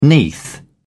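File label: "neath", British English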